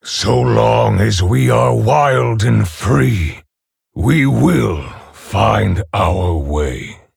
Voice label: Male Orc Ogre